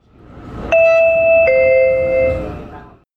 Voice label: comunicado trem

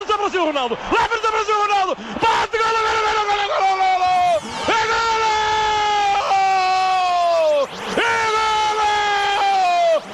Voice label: narracao

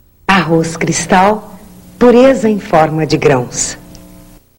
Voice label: comercial arroz cristal arroz cristal sempre a mesma qualidade

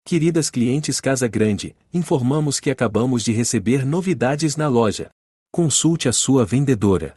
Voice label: chamada novidades

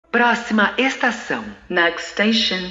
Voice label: proxima estacao metro

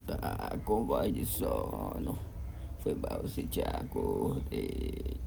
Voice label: voz de sono

